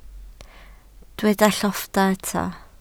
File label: "lloffa", Welsh